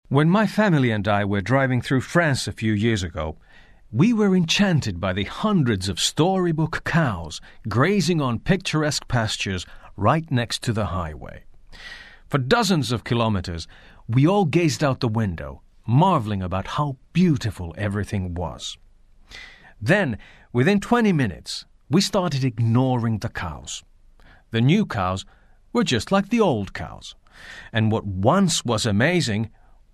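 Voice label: Finnish English, male, narration; voice over; accent of finland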